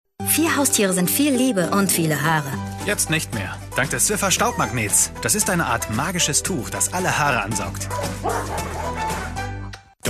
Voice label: TV spot; Swiffer